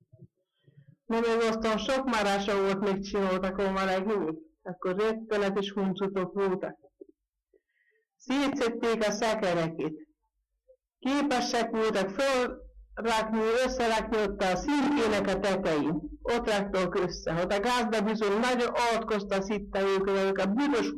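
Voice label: dialect collection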